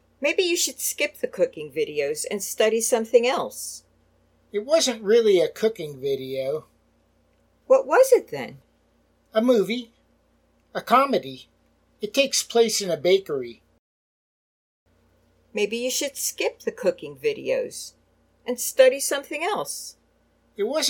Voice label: Natural Dialog; Lesson 3